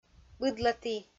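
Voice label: "быдлаті", Komi, female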